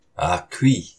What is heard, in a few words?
Stop! Áhqi!, Algonquian Language; Algonquian Language Revitalization Program